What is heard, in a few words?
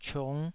"ciung5", taiwanese